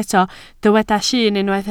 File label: "llyn", Welsh